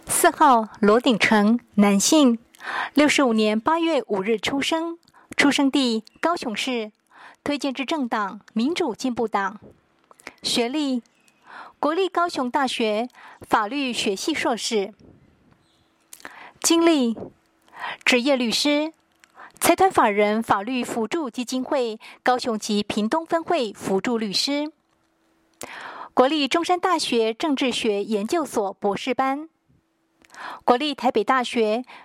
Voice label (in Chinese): 國語; 高雄市; 高雄市市長議員有聲選舉公報; 第九選區